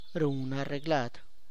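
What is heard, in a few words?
arranges for, Tlacochahuaya Zapotec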